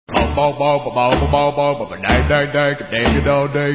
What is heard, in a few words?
Impersonator Impressionist Comedy; Corporate Entertainment